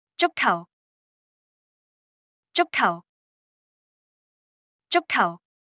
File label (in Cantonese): 足球, Cantonese; Traditional Chinese